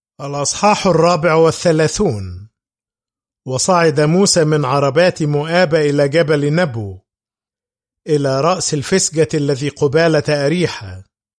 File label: Deuteronomy, arabic